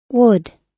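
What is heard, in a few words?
"would", American English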